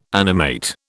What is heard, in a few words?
"animate", British